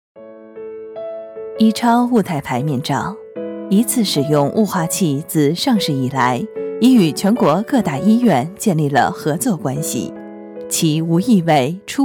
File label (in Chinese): 面罩产品介绍广告